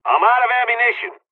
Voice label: dialog, dialogs serbian; War Thunder